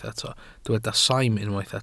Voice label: "saim", Welsh